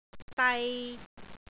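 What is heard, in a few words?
"dai3", Cantonese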